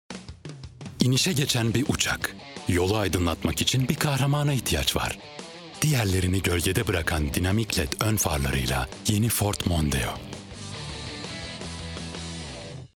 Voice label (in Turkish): duygusal motive